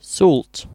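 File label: "salt", British English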